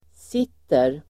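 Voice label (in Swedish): "sitter", Swedish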